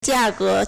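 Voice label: "价格 jiàgé", Chinese; 價格; price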